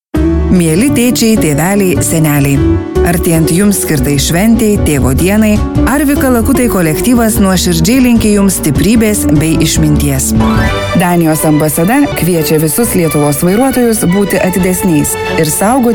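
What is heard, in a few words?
latvian female commercial; voice demos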